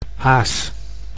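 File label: "aas", Dutch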